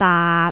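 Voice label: "daat6", Cantonese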